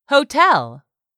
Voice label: "HOTEL", english; 3000 words

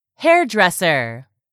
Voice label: "hairdresser", English; 3000 words

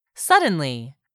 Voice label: "suddenly", english; 3000 words

